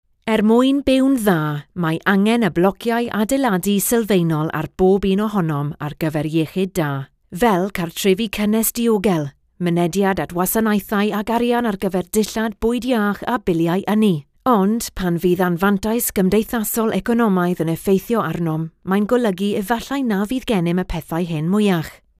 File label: Welsh